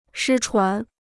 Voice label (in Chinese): "失传", Chinese